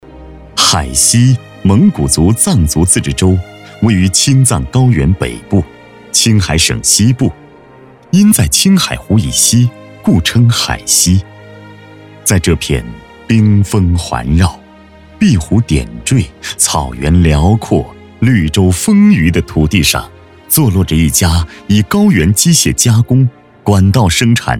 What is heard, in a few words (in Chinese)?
高端 男 企业 宣传 机械 公司 大气 正式